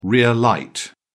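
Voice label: "rear light", British English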